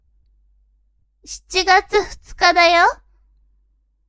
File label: woman